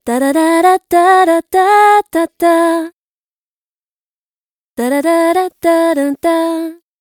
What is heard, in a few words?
vox female dry